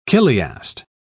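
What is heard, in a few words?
"chiliast", British English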